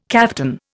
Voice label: "kaftan", Indian

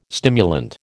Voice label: "stimulant", American

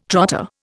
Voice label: "trotter", Indian

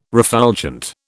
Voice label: "refulgent", British

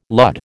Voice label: "lud", American

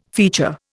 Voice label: "feature", Indian

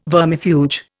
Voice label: "vermifuge", Indian

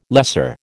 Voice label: "lesser", American

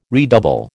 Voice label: "redouble", American

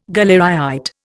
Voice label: "galleryite", Indian

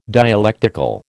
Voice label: "dialectical", American

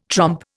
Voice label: "trompe", Indian

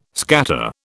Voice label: "scatter", British

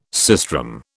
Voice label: "sistrum", British

